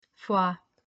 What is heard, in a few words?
Fua, pronunciation guide